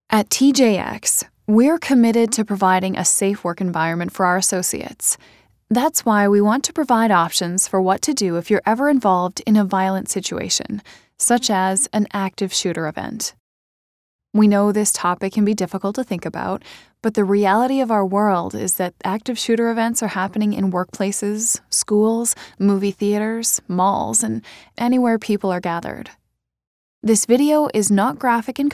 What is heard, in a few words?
english canadian e-learning